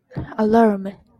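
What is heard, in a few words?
"alarm", JPN, female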